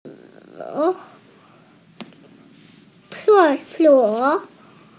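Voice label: "ce4suo3", Mandarin Chinese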